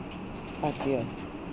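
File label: "adieu", Canadian, female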